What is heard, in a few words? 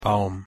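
"Baum", German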